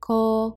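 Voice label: "こ", Japanese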